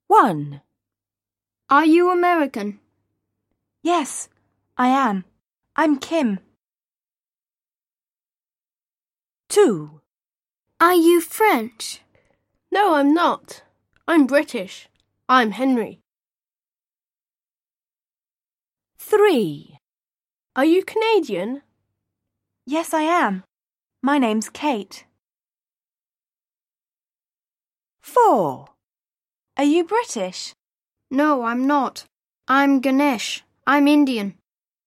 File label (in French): english radio dialogue entier